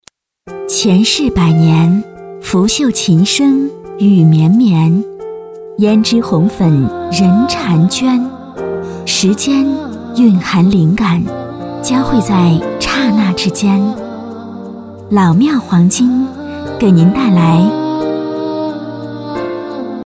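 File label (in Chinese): 广告 温柔, 女, 普通话; 珠宝首饰; 老庙黄金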